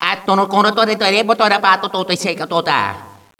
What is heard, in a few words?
talking, echo inside caves, underground; DS; ML BOWSERS INSIDE; Luigi